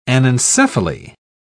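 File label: "anencephaly", British English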